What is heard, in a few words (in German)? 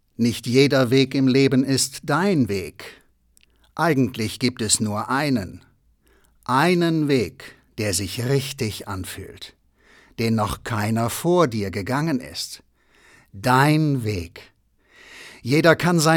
Werbung